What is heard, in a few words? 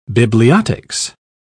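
"bibliotics", British English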